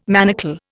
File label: "manacle", Indian